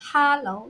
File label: hello chinese